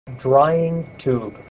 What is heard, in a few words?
"drying tube", voice